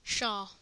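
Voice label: "pshaw", US English; pronunciations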